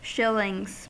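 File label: "shillings", US English; pronunciations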